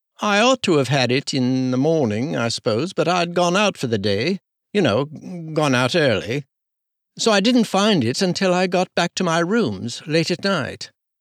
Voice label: english RP